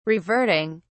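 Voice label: "reverting", British English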